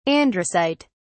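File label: "androcyte", English, British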